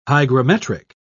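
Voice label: "hygrometric", British English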